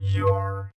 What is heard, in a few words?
I am Your DJ (robot), Yamaha DJX 2B